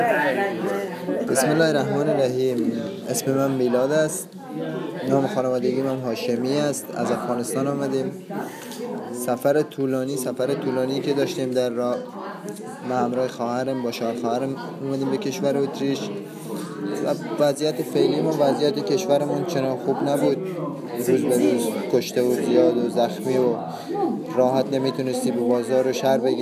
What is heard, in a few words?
Farsi